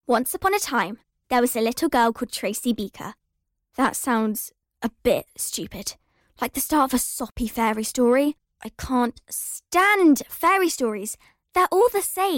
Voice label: Narration